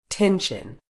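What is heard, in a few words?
"tension", British English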